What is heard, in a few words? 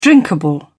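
"drinkable", British English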